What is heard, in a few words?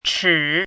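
"chi" third tone, pinyin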